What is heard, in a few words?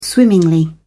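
"swimmingly", British English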